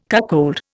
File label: "cuckold", Indian